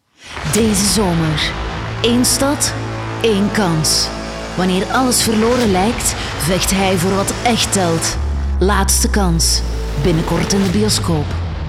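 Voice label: flemish movie trailers, female; flemish voice actors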